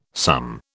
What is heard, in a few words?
"some", British